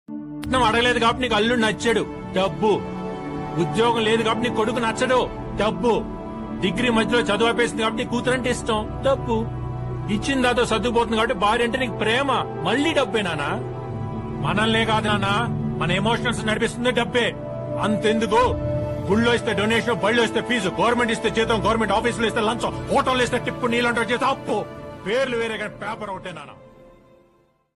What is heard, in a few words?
motivational dialogue, Telugu; gopichand; about value for money